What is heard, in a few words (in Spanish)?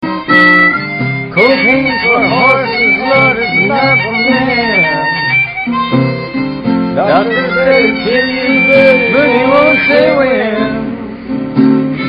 hombre